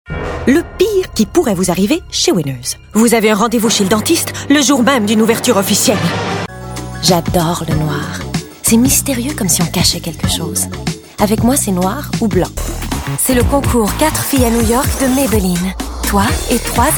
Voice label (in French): pub francais